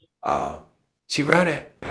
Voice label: Voice Acting, es